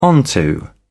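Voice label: "onto", British English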